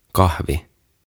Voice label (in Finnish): "Kahvi" "Coffee"; OTAVA KONSERNI